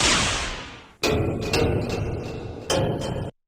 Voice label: disclosure shout commoner; yttd